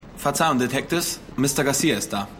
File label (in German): Polizist, Stimmproben